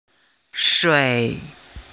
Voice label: chinese pronunciation ui iu